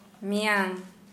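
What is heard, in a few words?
Santali female day before yesterday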